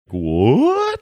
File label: funny drawn out what